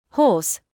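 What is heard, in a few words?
"hoarse" English, British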